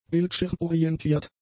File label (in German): "bildschirmorientiert", German; adjectives